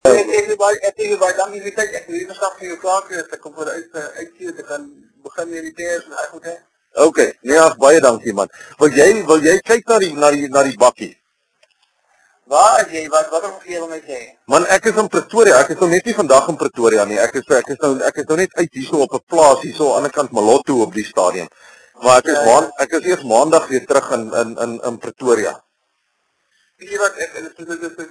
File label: Assessor phone recording